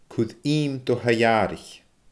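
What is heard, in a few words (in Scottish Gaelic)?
"cuir iim do thaigh aaraich", Scottish Gaelic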